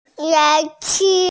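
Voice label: "yá chǐ", Mandarin Chinese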